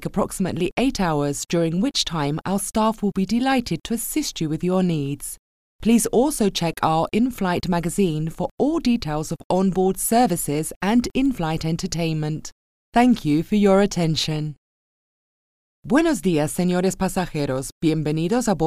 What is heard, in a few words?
GENERIC PA SYSTEM AIRLINES